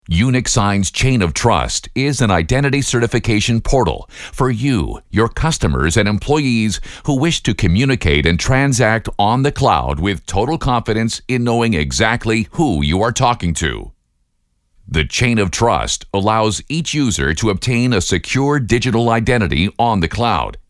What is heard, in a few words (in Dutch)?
Product Videos Bedrijfsleven; BUITENLANDSE VOICEOVER; stemacteur